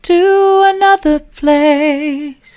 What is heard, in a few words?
to another place soft; vocal